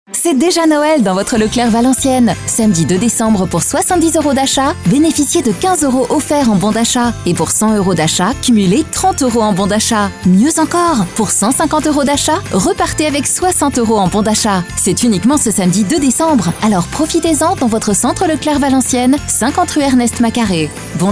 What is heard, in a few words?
France French, Christmas Commercials; Christmas Commercials 2023